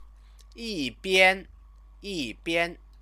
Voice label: "yibian", Chinese; 一 边 …一 边…; yìbiān…yìbiān; on one hand...on other hand; conjunction; Chinese lessons